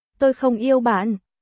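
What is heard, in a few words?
Vietnamese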